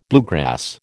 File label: "bluegrass", American